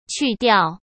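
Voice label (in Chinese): "去掉", Mandarin; hsk; chinese-flashcards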